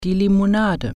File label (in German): "soda", German